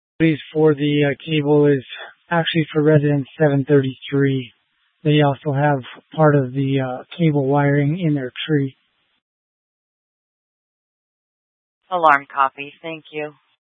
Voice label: Fire Departments Mesa Simulcast; TOPAZ